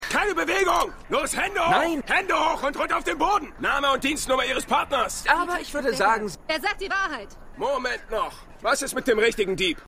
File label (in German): Polizist Polizistin; Lucifer